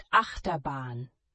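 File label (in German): "Achterbahn", German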